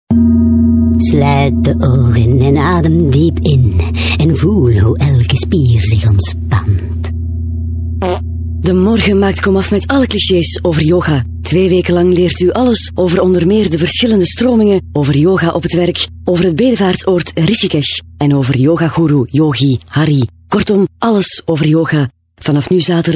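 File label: flemish female character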